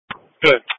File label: Police Patrol 1 (Dispatch)